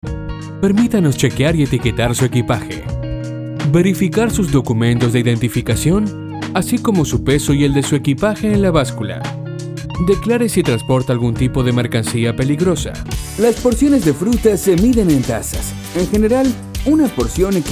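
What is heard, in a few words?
elearning Latin Spanish Español neutro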